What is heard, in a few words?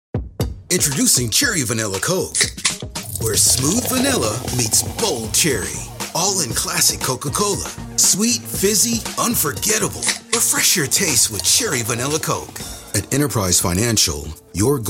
Commercial Clips, Professional Male Voice Over Talent; entertainment marketing and creative advert